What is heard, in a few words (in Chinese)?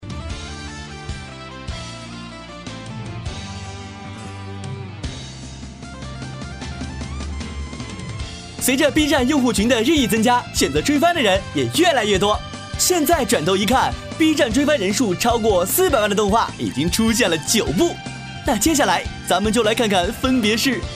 旁白, 动漫节目; 优酷动漫节目